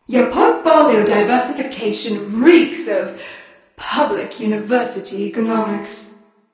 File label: taunt directed; fafnir; smite; nox